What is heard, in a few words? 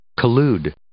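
"collude", English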